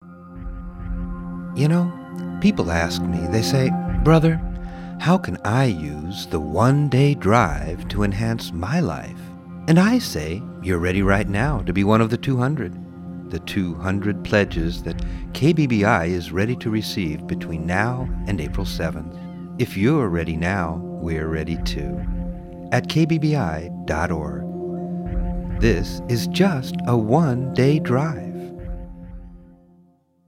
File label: promo; KBBI